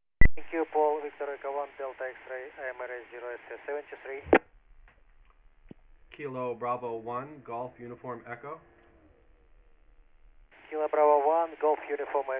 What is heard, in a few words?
QSO